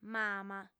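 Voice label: "mama", female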